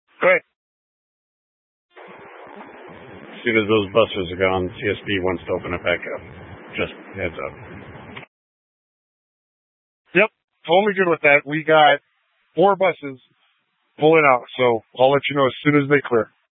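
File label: Incident Tac 3, Scanner audio recording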